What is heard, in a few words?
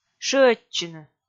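"шыӧдчыны", Komi, female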